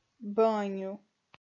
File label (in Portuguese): "banho", Portuguese